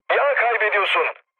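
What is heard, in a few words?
Turkish damaged oil, mood med, speech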